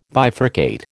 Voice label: "bifurcate", American